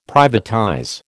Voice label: "privatize", American